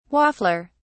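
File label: "waffler", British English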